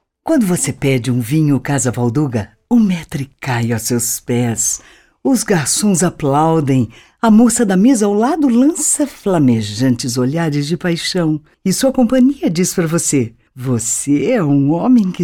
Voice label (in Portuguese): INTERPRETADA VOZ MADURA; CASA VALDUGA